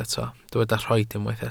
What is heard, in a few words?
"rhoid", welsh